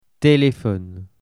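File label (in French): "telephone", French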